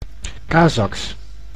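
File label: "Kazaks", Dutch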